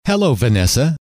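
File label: hello, male; trainer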